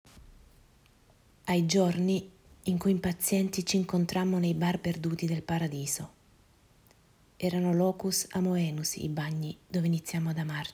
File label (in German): Italienisch